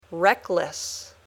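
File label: "reckless", English word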